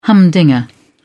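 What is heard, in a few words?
"humdinger", English, British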